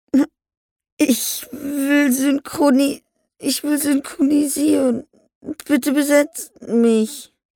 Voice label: Fremdtake Traurig

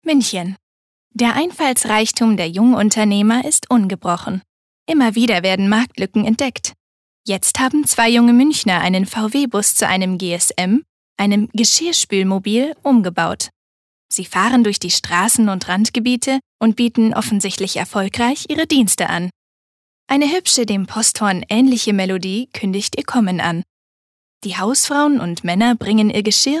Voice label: Kommentar Neutral

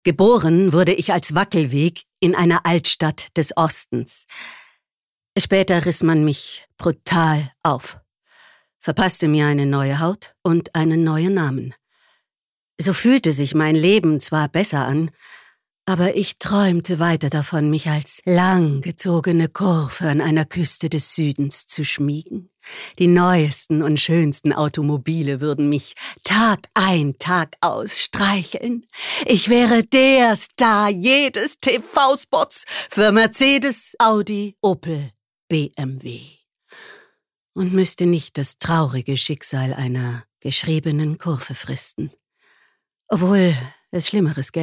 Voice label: Schauspiel Variabel

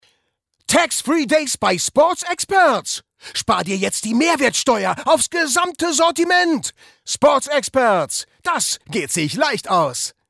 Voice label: Werbung Lebendig

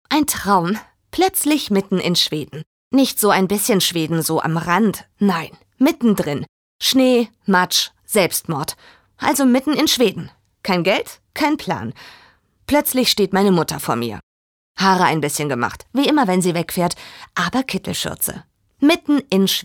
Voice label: Schauspiel Dynamisch

